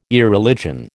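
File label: "Irreligion", American English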